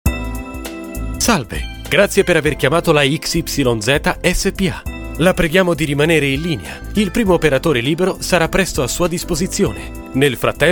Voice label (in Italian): VOCE SEGRETERIA TELEFONICA E IVR VARESE